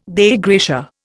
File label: "Dei gratia", Indian